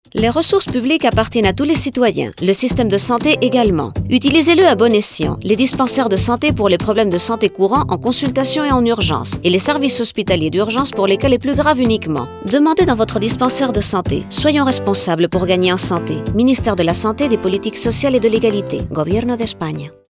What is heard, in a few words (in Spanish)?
SANIDAD RADIO FRANCES; serviciosSanitarios